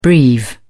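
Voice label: "breve", British English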